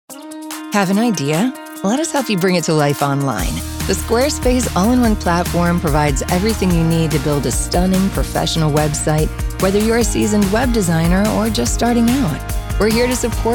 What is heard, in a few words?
Non Broadcast Narration